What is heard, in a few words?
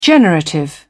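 "generative", British English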